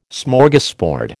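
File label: "Smorgasbord", American English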